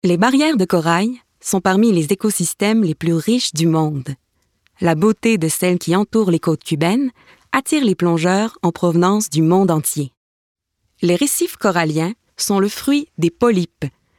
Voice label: narration